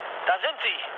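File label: German enemy detected mood low, speech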